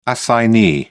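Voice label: "assignee", British English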